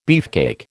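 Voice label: "beefcake", American English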